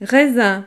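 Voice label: "raisin", French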